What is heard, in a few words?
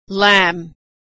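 "lamb", American English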